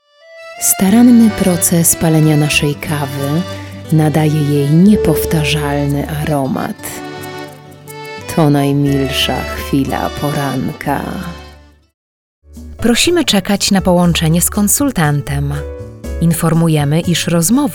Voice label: Female Polish Voice Polish sample mix